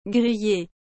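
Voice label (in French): "grillee", French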